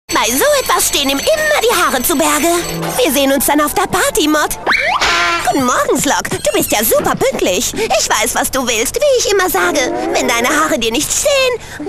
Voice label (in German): Rolle Trickstimme; LOFT VOICES